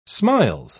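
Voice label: "smiles", British English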